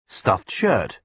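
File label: "stuffed shirt", British English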